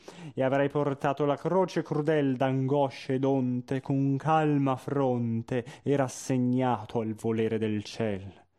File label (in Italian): espressivo; Otello; Dio Mi potevi scagliar